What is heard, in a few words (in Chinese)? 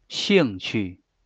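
"兴趣" Mandarin Chinese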